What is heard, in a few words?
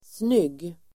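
"snygg", Swedish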